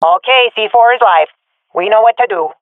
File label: hear bomb, swat fem; vo; Counter Strike Model Pack; CS:GO